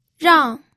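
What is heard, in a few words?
"rang", Mandarin Chinese, fourth tone; pinyin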